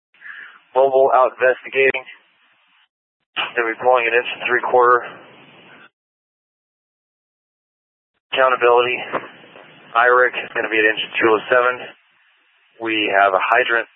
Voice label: Fire Dpts VHF 154.800 Patch, scanner